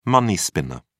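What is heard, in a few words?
"money-spinner", English, British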